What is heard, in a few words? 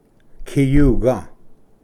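"kiyuga", Cherokee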